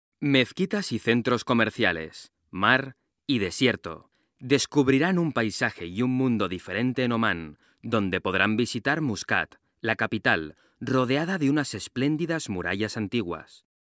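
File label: European Spanish, Audioguides; GoLocalise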